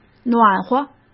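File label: "暖和", Mandarin Chinese; chinese-flashcards; hsk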